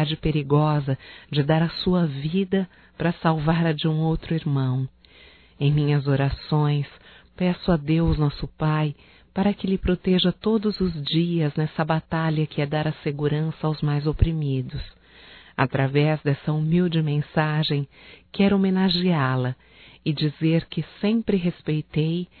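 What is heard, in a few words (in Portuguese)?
HOMENAGEM A POLICIAL MILITAR (Feminino), Telemensagens; Homenagens; Telemensagens 2017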